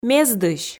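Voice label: "мездысь", Komi, female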